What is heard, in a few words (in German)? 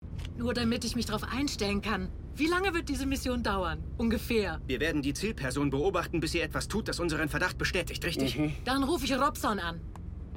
Synchron Demo Kinofilm Anruf; Kinofilm Anruf von Robson